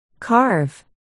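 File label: "carve", spoken word, articulation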